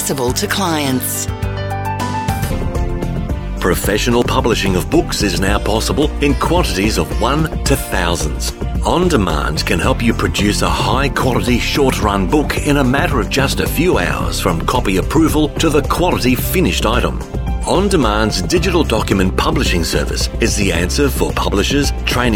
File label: business services messages on hold